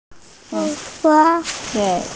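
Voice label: "wei3ba5", Mandarin Chinese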